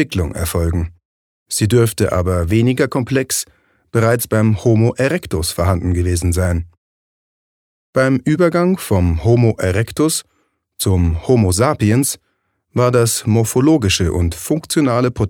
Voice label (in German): Sprache Neutral